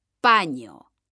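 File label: "paño", Spanish; mekanta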